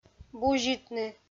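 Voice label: "бузитны", Komi-Zyrian, female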